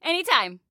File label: Team Anytime, Female; Female 02